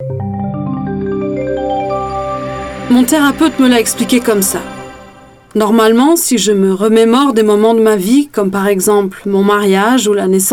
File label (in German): Französisch; NAWA